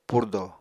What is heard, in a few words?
"purdo", apatani